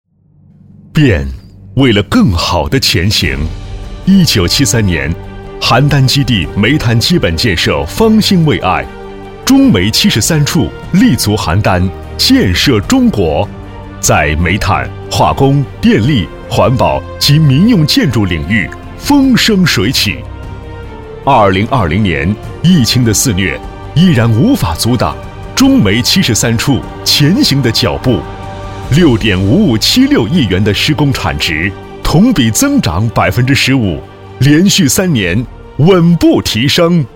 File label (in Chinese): 男 专题